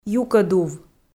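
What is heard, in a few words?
"юкӧдув", Komi, female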